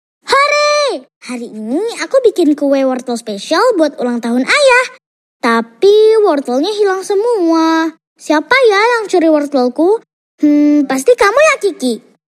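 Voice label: child CHARACTER; INDONESIA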